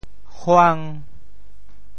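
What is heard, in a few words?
"huang5", Teochew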